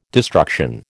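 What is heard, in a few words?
"destruction", American English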